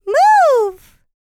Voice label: L LICKS, FEM SPOKEN, R&B Dance; Spectrasonics Vocal Planet